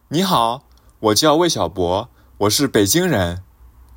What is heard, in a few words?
Beijing Hua, dialects; Pekingese